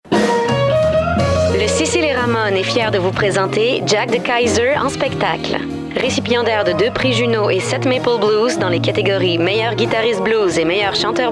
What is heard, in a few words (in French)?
Charmante sympathique; Spectacle blues